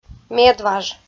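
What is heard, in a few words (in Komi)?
"медваж", Komi-Zyrian, female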